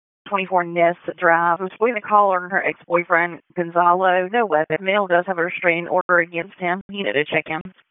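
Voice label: Dispatch, p25; WSO DISP 1; wake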